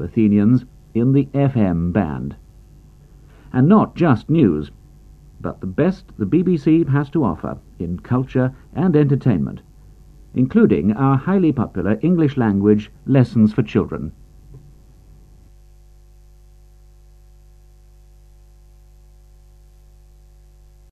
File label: Radio promo; Olympic Action